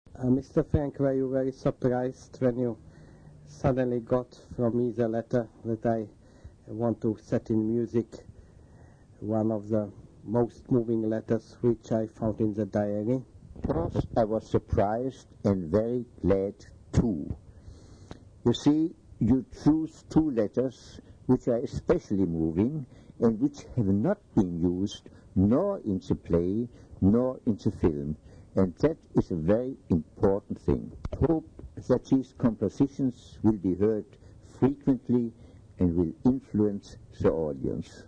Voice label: Conversation; Diary Anne Frank